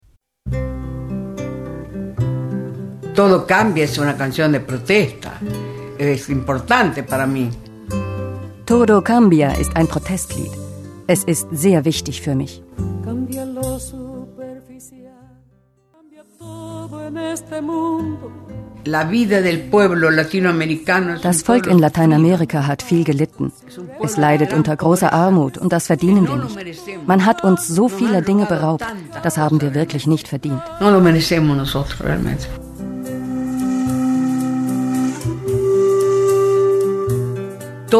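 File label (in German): VoiceOver, Sprecherin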